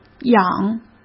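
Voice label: "痒", Mandarin Chinese; chinese flashcards; hsk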